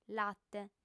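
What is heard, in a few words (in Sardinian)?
"late", Sardinian, female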